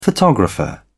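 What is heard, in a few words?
"photographer", British English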